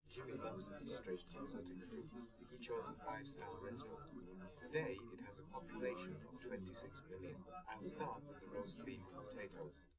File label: male live rec